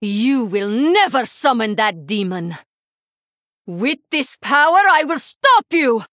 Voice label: Shadow Priest Female Draenei